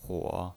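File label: "huo", third tone, pinyin voice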